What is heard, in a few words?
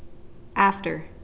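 "after", Canadian female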